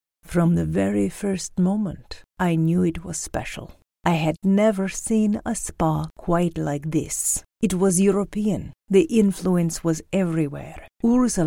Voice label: Accents and Dialects